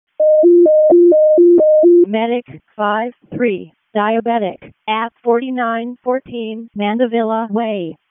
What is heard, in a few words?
WC EMS ALERT, p25; wake